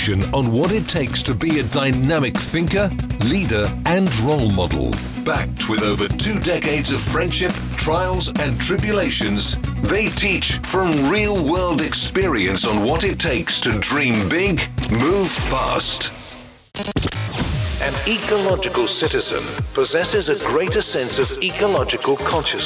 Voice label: podcast branding